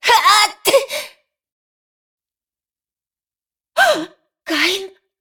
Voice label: cinematic voice, ru; lineage2

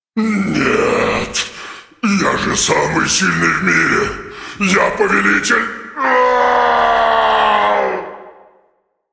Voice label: cinematic voice, ru; lineage2

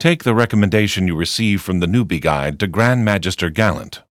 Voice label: tutorial voice; lineage2

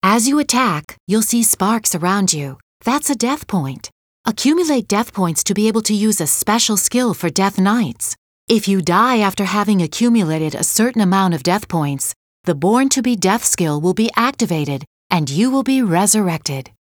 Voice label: tutorial voice; lineage2

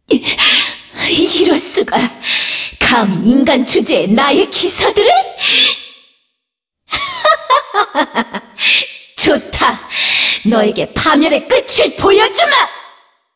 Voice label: cinematic voice; lineage2

